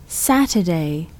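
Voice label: English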